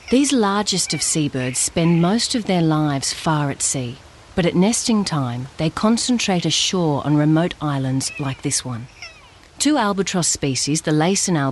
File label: Narration, regional accents